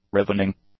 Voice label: "revenging", English; adjectives